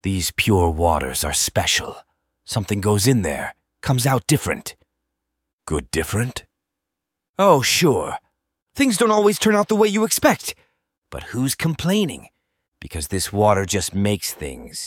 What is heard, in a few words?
male, fake sponsors; schlit; flint waters